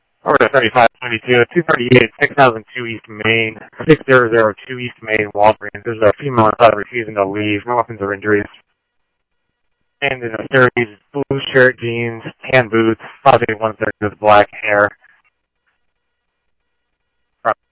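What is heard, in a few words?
police radio scanner traffic, Mesa Simulcast; MESA PD Superstn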